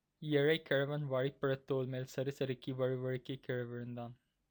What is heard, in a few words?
Tamil tongue twister